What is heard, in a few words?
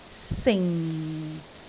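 "sing4", Cantonese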